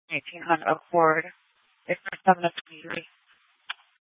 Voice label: Sheriffs Office, scanner